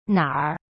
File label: "哪儿" na r, chinese